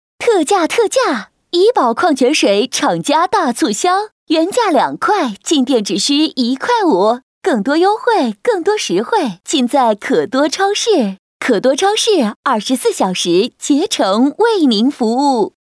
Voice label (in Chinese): 女 促销 超市干音, 国语女声配音; 可多超市